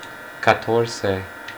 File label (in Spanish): "catorce", Spanish